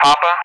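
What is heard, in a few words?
"Papa", English; Alphabet; DCS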